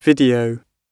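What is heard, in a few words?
"video", British English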